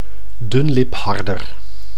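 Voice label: "dunlipharder", Dutch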